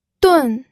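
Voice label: "dun", pinyin, fourth tone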